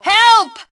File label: Team Help, Female; T2 Female 03